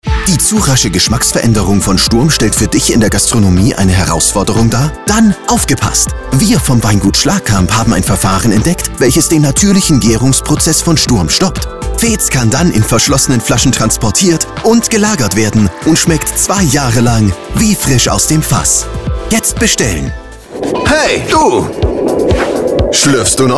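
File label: German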